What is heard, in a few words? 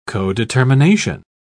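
"codetermination", English, British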